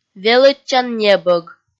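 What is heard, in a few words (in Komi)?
"велӧдчан небӧг", Komi, female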